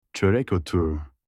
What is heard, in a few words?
black seed in istanbul turkish